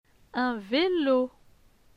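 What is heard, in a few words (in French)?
"Un vélo", French; phonetique; les consonnes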